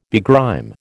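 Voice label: "begrime", American English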